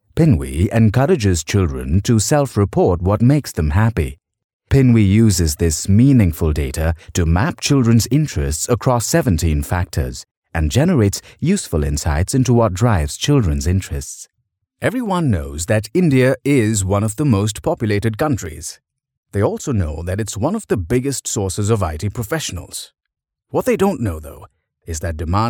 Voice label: male indian voice over narrative

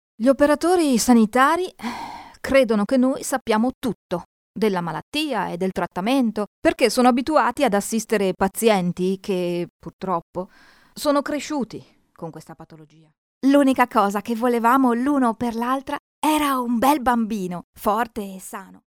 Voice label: female italian voice over character

